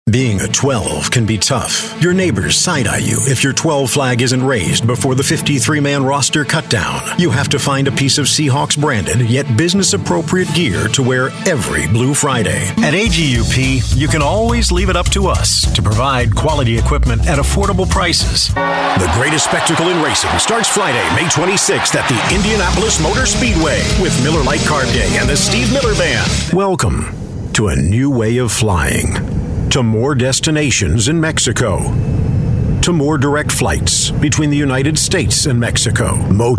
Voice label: american accent, english male voices american accent